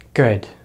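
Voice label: "good", American English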